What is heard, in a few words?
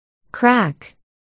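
"crack", spoken word, articulation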